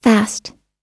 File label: "fast", US English